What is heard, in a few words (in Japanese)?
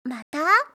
"mata", voice